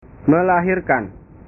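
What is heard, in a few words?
"melahirkan", Indonesian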